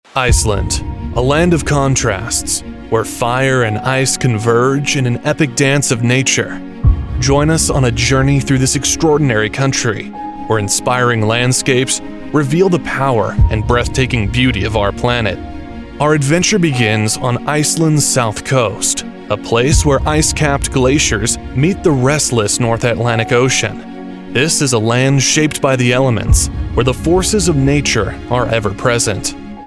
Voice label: Iceland Documentary

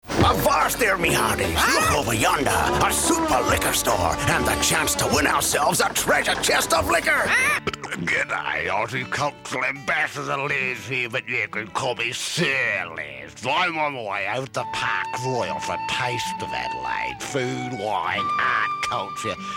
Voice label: Character Accents

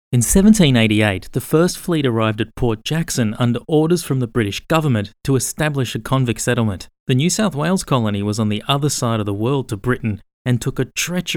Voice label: Audiobok

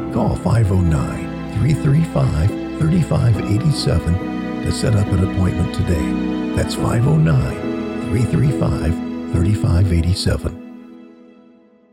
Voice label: Farmers Mental Health PSA; Wash. DC

